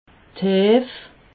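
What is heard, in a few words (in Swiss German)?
"heef", Alsatian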